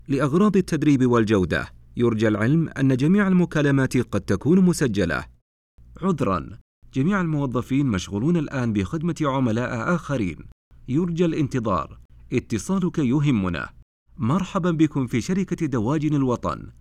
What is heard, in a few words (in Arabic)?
عينة رد آلي; دواجن الوطن